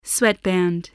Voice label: "sweatband", English

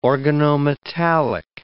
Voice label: "organometallic", English word

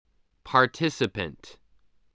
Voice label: "participant", English, voice

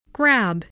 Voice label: "grab", voice

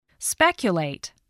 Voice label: "speculate", English voice

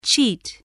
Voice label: "cheat", English, voice

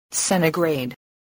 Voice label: "centigrade", English, voice

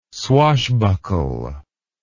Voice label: "swashbuckle", English word

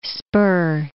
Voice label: "spur", english

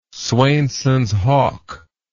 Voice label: "swainsonshawk", English word

